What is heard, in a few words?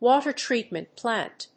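"WATER TREATMENT PLANT", English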